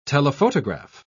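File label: "telephotograph", British English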